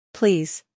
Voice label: "please", English